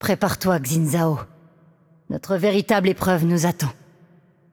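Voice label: voice over, French; Game Outro; bloom minigame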